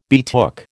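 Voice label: "betook", American English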